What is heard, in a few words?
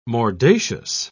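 "mordacious", British English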